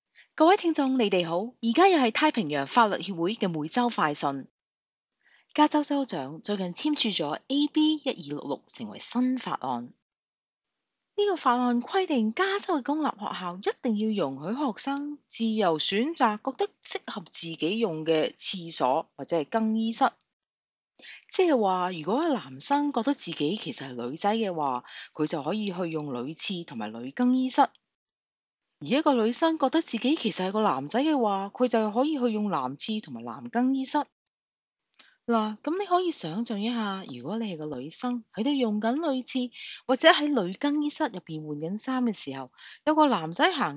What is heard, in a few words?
cantonese